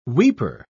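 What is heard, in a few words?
"weeper", British English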